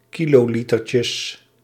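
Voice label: "kilolitertjes", Dutch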